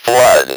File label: "flood", Speak & Spell